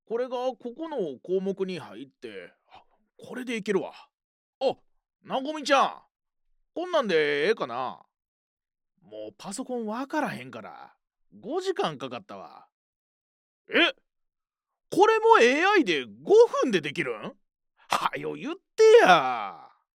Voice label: 関西弁のおじさん, ナレーション; 声優・俳優; ハイテンションや叫びなどを得意としつつ、温かさや落ち着いた雰囲気まで幅広いご要望に対応します。; 声優・俳優として、舞台、映画、ドラマ、吹き替え、およびナレーションの経験多数。

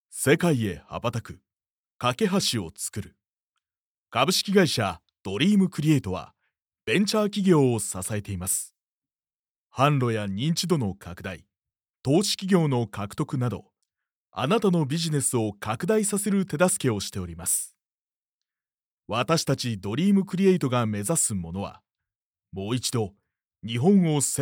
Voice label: male, ナレーション, ストレート; narrator men; 関西弁が話せます。

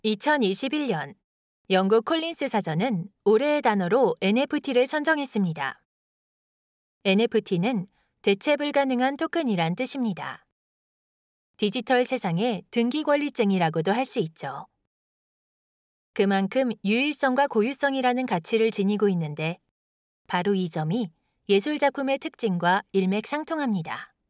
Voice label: ハキハキした, ナレーション, 韓国語; 韓国ソウル出身。日本の専門学校、声優養成所を卒業。; 韓国語はもちろん、日本語にも対応可能です。

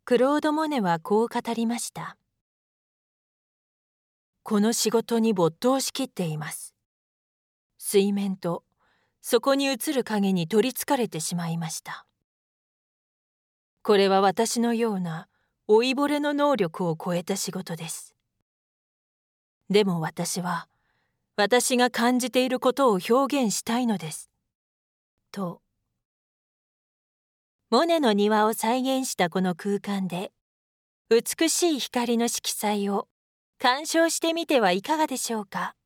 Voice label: 落ち着いた(日本語), ナレーション; 韓国ソウル出身。日本の専門学校、声優養成所を卒業。

